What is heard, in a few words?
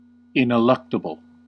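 "ineluctable", US English; pronunciations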